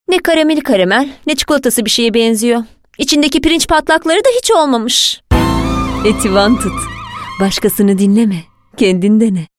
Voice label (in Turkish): neseli esprili, seslendirme